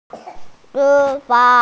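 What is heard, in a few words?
"panda", Mandarin Chinese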